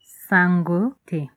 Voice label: fine, Lingala; Nsango te

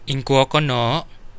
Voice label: how are you, kadazan; Ingkuo ko no?; Coastal Kadazan

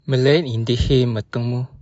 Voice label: long time no see, bonggi

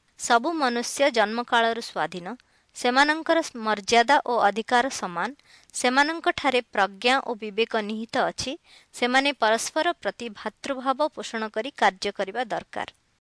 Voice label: udhr oriya

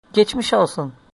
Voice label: get well soon, turkish

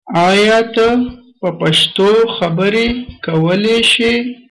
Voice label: do you speak, pashto; ايا ته په پښتو خبرې کولى شې؟; (aya ta pa pakhto khabarey kawalai shey?)

